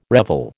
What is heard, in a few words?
"revel", American